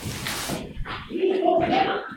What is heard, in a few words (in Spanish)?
cerca mi problema dos voces